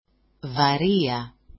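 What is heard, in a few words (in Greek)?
"varia", Greek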